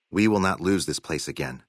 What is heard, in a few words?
male adult greeting